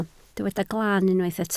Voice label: "glaan", Welsh

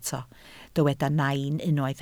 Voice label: "nain", Welsh

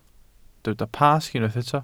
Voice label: "Pasg", Welsh

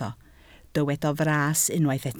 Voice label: "fras", Welsh

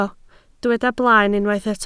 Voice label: "blaen", Welsh

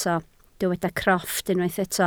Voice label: "crofft", welsh

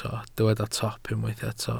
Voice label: "top", Welsh

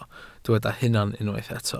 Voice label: "hunan", Welsh

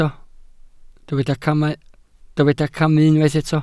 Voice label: "camu", welsh

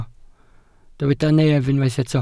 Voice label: "nef", Welsh

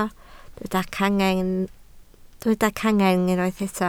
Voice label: "cangen", Welsh

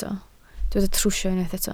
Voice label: "trwsio", Welsh

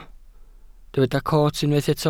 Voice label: "coot", Welsh

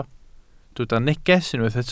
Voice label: "neges", Welsh

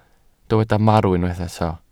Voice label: "marw", welsh

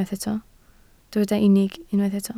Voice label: "unig", Welsh

